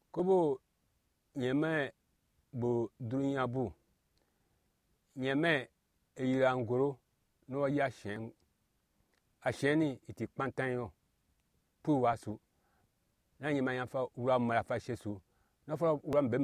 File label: Anufo; Création du monde; Creation of the World